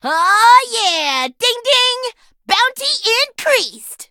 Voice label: level up, en; Cookie Run

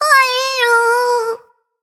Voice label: defeat, zh-hant; cookie run

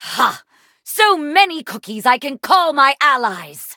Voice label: levelup, en; Cookie Run

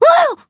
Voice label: touch, zh-hant; cookie run

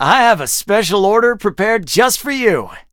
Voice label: levelup en; cookie run

